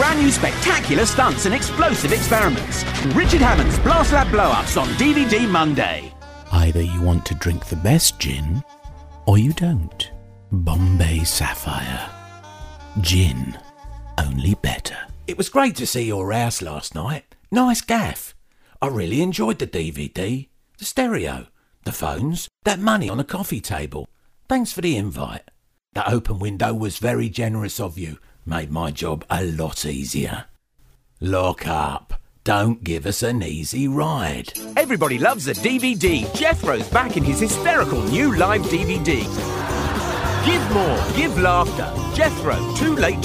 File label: Commercials Voice